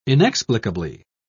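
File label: "inexplicably", British English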